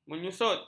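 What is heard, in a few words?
"menyusut", Malay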